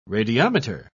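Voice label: "radiometer", British English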